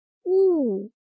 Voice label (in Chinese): "雾", chino